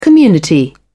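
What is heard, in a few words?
"community", British English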